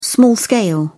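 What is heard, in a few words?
"small-scale", English, British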